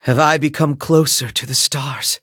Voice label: level up, en; cookie run

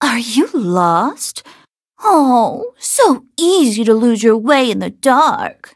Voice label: loading, en; cookie run

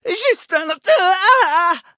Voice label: hit fr; cookie run

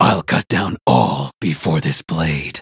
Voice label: menu tap eng; dark choco

